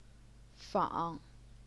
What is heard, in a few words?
"fang3", chinese pinyin